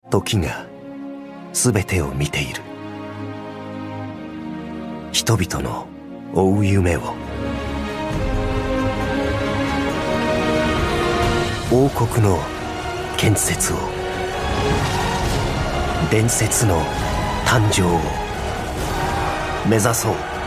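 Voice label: Japanese commercial; GoLocalise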